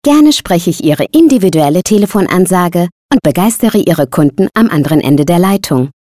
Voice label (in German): sprachprobe sprecher